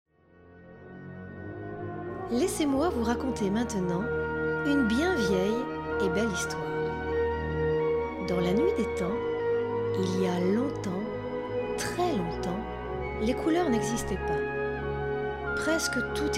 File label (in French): Narration Conte Voix naturelle Chaleureuse; Magicienne